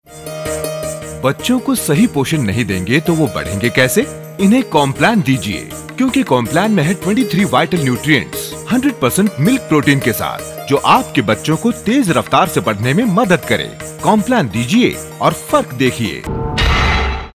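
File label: TVC hindi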